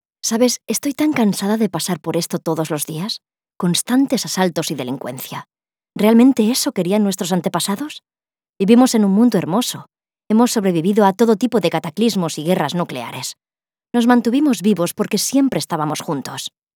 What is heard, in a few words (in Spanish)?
Voz natural